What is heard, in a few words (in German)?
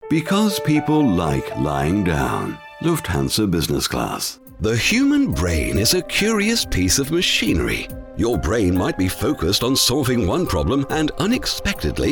English Sprecher voice